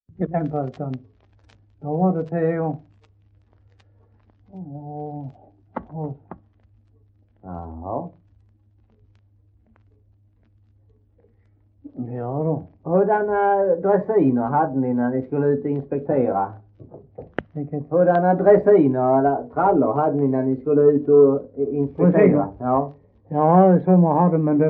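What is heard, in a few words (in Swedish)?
Hallaröd Skåne, dialekter; Folkminnen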